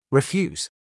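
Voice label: "refuse", English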